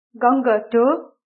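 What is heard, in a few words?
"crab", Nepali; grade4; vertebrates invertebrates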